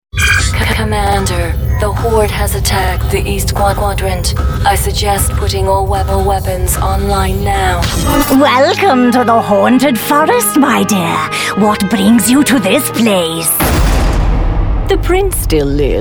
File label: women animation